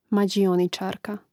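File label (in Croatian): "madionicarka" Croatian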